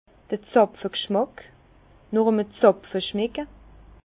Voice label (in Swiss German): "zapfe", Bas Rhin; vin; goût de bouchon